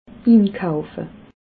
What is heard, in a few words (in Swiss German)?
"inkaufe", alsace